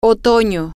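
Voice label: autumn fall, Spanish, female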